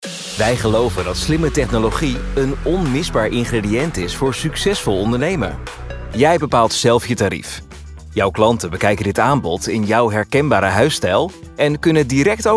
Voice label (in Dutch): ZAKELIJK